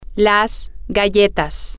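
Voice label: the cookies, Spanish, female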